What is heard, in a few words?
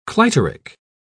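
"clitoric", British English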